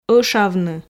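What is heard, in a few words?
"ӧшавны", Komi, female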